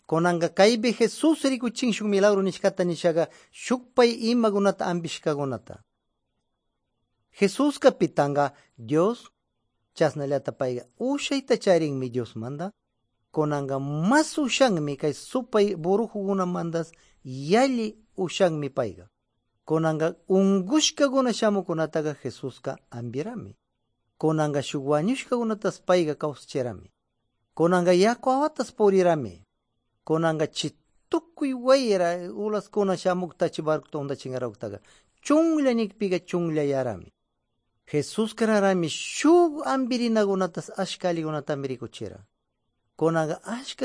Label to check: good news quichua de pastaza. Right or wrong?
Right